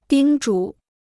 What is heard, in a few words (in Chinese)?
"叮嘱", Chinese